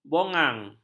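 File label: "bogang", Malay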